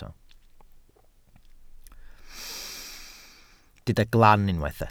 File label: "glan", Welsh